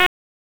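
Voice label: Police Northeast District Tactical 1, p25; Raleigh; wake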